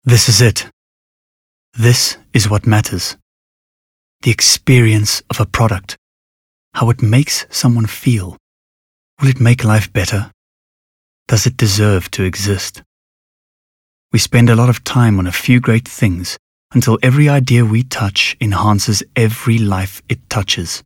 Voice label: English Intimate

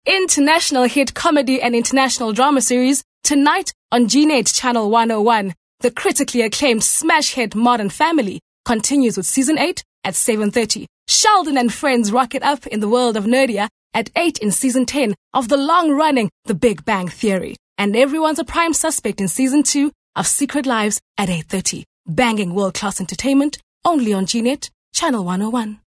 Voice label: English Promo

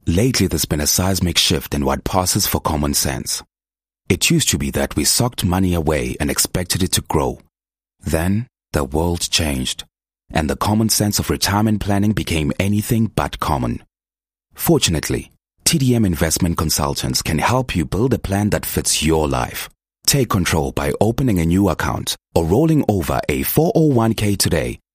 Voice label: English Corporate

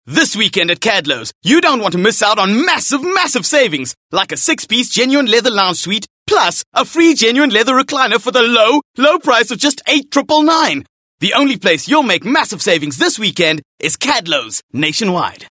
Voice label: English Hardsell

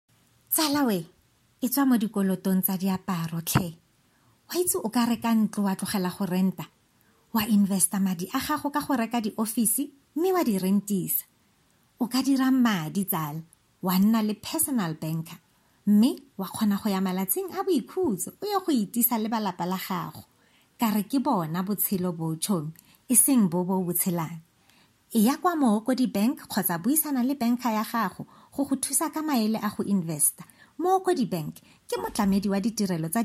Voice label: Tswana Conversational

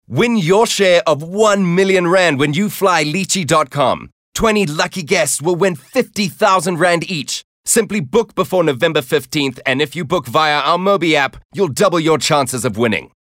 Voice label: English Hardsell

